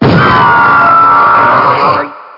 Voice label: vo bind